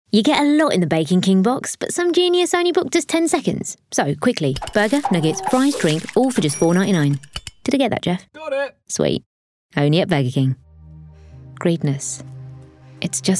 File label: Urban